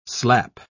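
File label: "slap", spoken word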